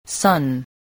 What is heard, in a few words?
"son", English voice